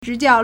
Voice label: "zhi2jiao1", Chinese